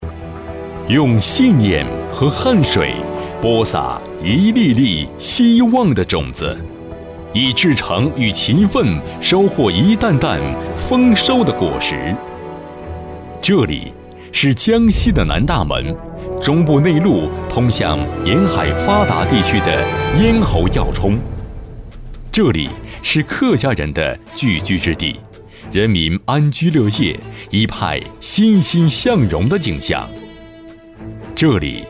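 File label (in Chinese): 【专题】男-大气磁性; 江西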